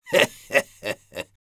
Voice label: Sniper laugh evil es

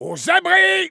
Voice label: Sniper jarate toss, fr

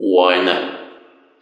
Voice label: Intercom countdown

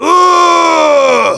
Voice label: jeers; Demoman

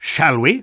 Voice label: Spy battlecry

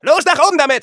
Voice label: Scout move up, de

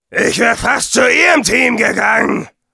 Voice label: jeers, de; Demoman

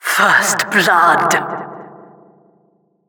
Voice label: Announcer first blood

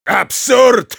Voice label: Spy negative vocalization de

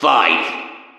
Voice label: Intercom countdown 5

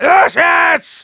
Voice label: battlecry; Demoman

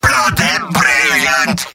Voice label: positive vocalization; Demoman; mvm

